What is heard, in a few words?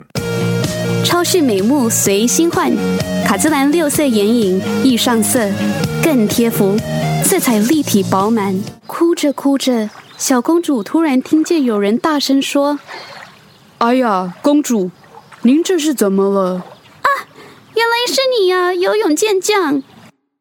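Chinese, Commercial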